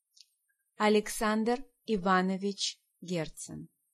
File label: "Herzen", Pronunciation